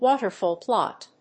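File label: "waterfall plot", English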